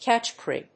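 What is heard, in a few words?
"catchcry", English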